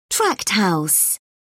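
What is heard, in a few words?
"tract house", British English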